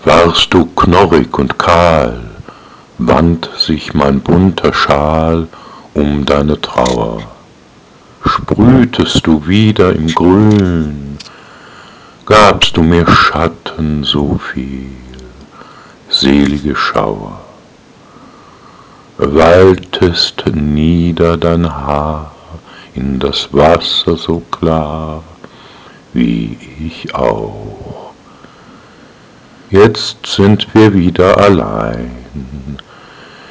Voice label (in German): Die weibliche Stimme